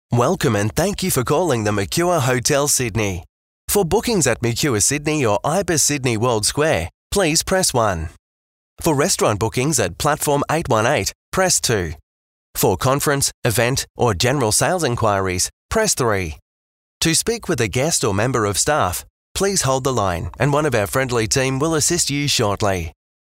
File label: IVR; Mercure Sydney